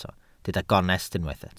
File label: "gonest", Welsh